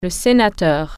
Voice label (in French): "senator", French